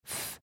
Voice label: Th Sound Thin